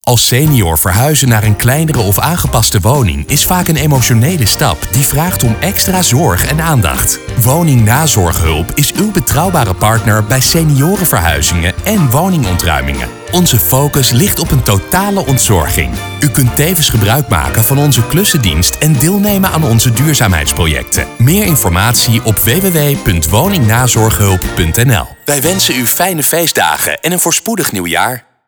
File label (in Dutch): Kerstcommercial; Woning Nazorghulp